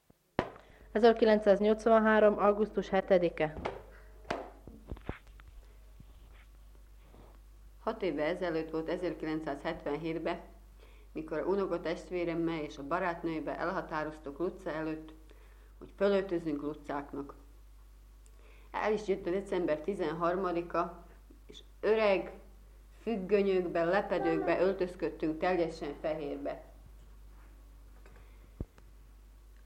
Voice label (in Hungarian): nyelvjaras gyujtesek